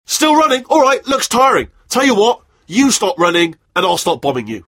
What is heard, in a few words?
rage; Wheatley